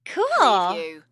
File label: Teen Girl Says Cool